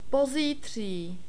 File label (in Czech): "pozitri", czech